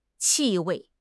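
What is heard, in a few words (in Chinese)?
"气味", Chinese; HSK6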